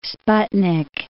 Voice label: "sputnik", English